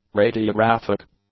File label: "radiographic", English; adjectives